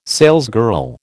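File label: "salesgirl", American English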